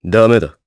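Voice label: Vox Deny Japanese; Riheet; kingsraid audio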